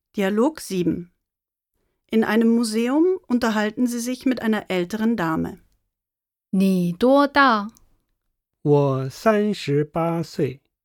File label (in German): Dialog